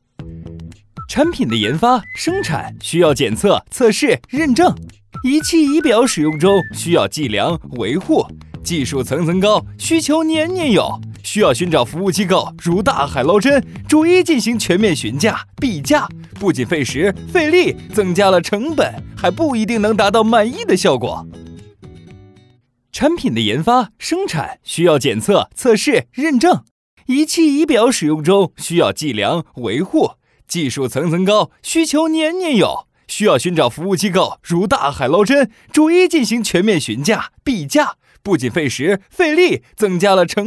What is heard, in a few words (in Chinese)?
年轻 轻松